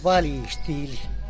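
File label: "balitxily", Teotitlán del Valle Zapotec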